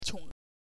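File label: "qiong", Mandarin Chinese, neutral tone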